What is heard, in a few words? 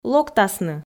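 "локтасны", Komi, female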